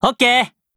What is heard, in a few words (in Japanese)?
VO jp